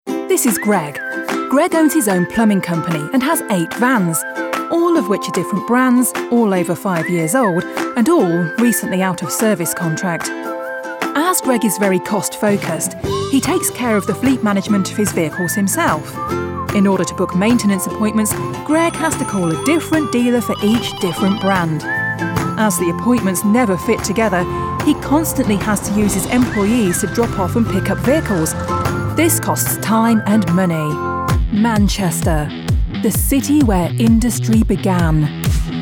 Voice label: Corporate